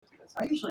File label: loon crazy person